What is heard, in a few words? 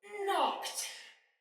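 See Player Ready, Soldier Female, Battle Barks; Sinecure; en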